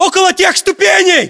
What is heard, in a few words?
landmark near steps, battlechatter ru; localized english